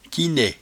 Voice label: "quinet", patois jura